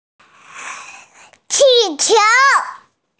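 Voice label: "balloon", hearing impaired; qi4qiu2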